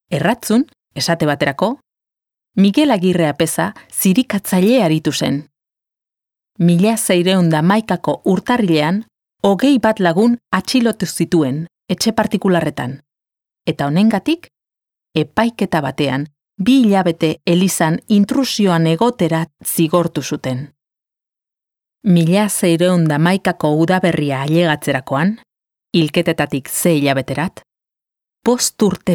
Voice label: documental euskera

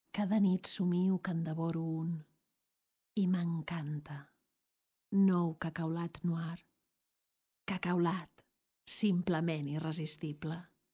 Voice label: publicidad catalan

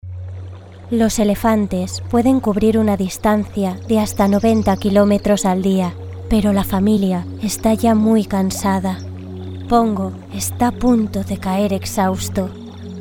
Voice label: documental

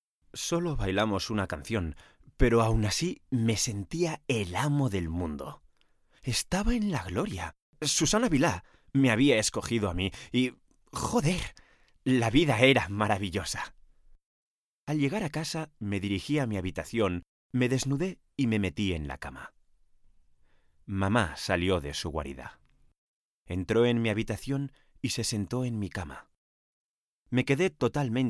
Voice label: Audiolibro Spanish

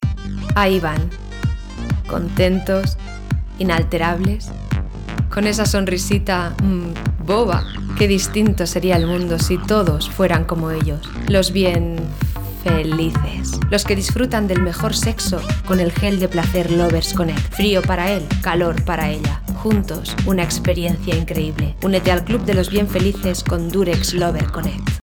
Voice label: Castellano; Durex connect

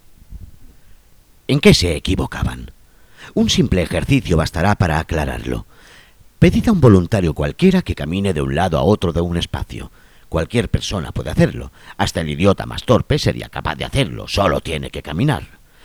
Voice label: Audio Lectura Castellano

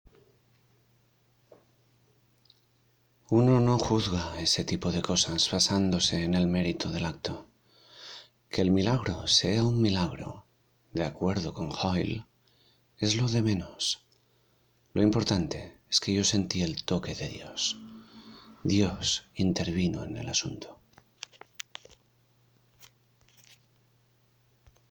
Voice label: Muestra intenso

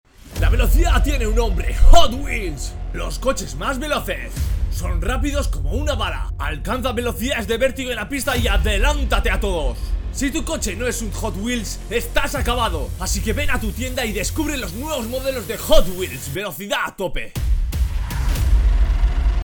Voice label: Anuncio

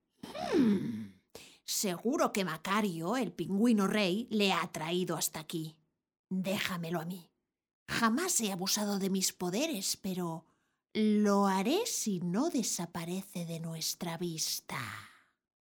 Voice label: dibujos castellano

